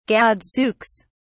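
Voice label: "gadzooks", British English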